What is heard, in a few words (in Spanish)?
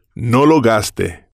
no lo gaste, Spanish